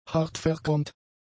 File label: "hartverchromt", German; adjectives